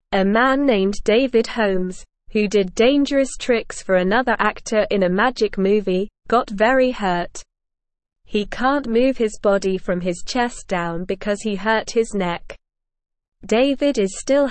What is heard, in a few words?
English Newsroom Beginner SLOW Reading; David Holmes The Strong Man Who Overcame Hardship